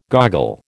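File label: "goggle", American English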